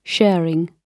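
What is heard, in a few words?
"sharing", British English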